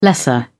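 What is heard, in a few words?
"lesser", British English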